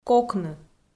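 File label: "кокны" Komi, female; audio dict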